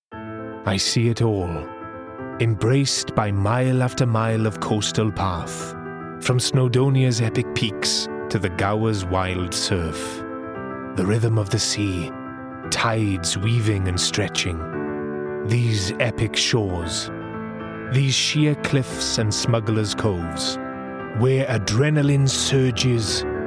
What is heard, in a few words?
Commercial; Visit Wales